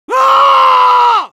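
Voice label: Medic pain critical death, Spanish; *Grito de muerte*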